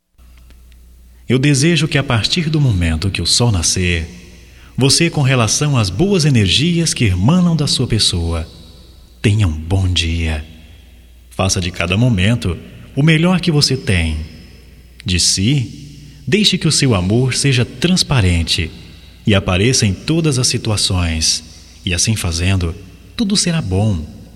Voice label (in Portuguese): BOM DIA GERAL, masc